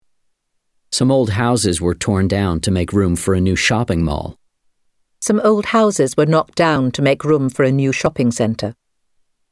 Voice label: British English